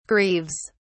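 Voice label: "grieves", British English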